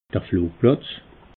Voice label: "flugplatz", alsace

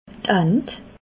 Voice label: "ant", Alsace

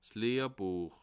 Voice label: "lehrbuech", alsace

